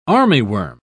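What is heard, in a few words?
"armyworm", British English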